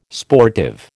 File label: "sportive", American